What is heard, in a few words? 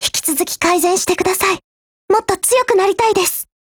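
Japanese; KP31; FEED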